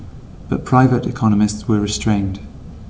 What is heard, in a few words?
Reverb far